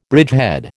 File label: "bridgehead", American